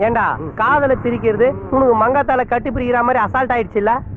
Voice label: Tamil Comedy

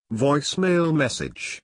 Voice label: Voicemail message

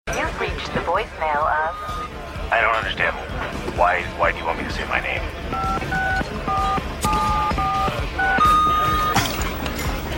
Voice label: voicemail; Castiel

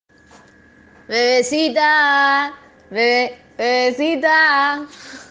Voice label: Bebesitaaa Mujer

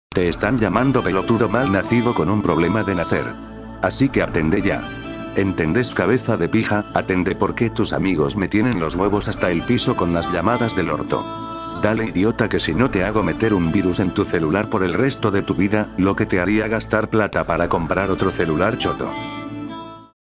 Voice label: Loquendo Sms

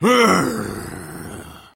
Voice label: Engineer Jeers

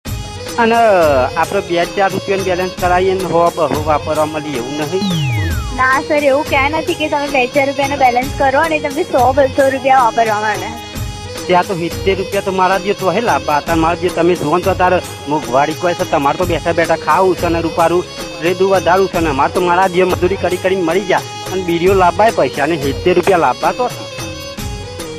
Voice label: Gujarati Prank Call

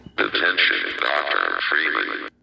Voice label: Announcer; Black Mesa

